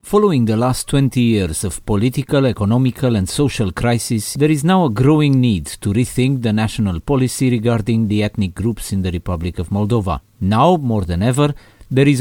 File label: ENG